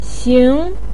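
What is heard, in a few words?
"xing", Mandarin pinyin, second tone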